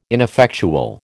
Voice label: "ineffectual", American